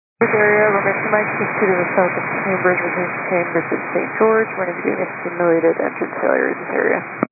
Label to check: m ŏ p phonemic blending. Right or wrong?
Wrong